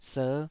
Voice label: "ser5", taiwanese

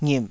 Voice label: "ngip3", taiwanese syllables

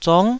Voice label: "zong9", Taiwanese

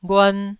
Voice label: "ghuan1", Taiwanese syllable

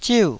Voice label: "ziu4", taiwanese

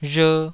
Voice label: "rher2", taiwanese

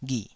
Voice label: "ghih3", Taiwanese syllable

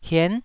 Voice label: "hien9", Taiwanese syllable

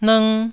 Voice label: "nng1", Taiwanese syllable

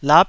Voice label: "lap9", taiwanese syllables

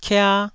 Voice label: "kia1", taiwanese syllables

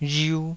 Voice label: "rhiu2", taiwanese

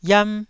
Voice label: "iam1", Taiwanese syllable

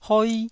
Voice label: "hoi1", taiwanese

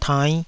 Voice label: "tainn5", taiwanese Syllables

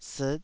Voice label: "siit5", Taiwanese syllable

